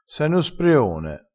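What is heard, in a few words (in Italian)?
"spreone", dialetto crespinese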